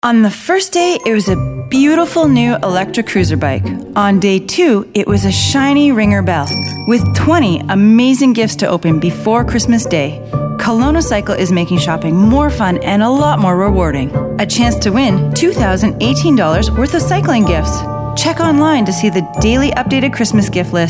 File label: radio; Kelowna Cycle; Christmas Countdown